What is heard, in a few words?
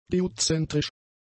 "geozentrisch", German; adjectives